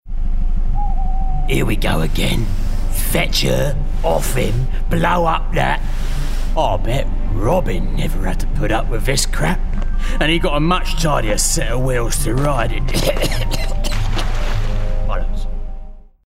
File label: Gaming Character Sidekick Cockney